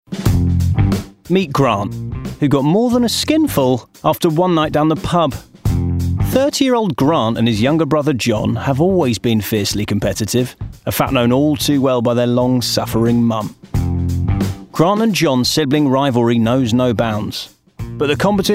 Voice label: DOCUMENTARY; Tattoos